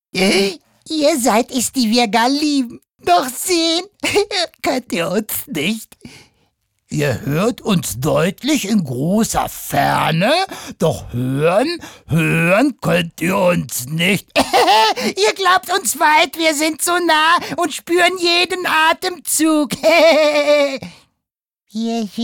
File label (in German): Charge, maenner